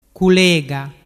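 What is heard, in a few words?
"culega", Ligurian dialect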